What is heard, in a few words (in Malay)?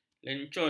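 "lencun", Malay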